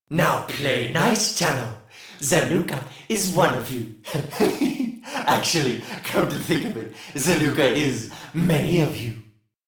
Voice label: Taunt Neutral; Warframe; Alad V Boss; en